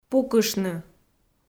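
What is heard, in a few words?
"пукыштны", Komi, female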